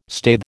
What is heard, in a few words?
"staithe", American